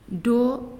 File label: "See", Naasioi